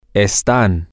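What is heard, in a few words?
all Of You Are Temporary, Spanish, male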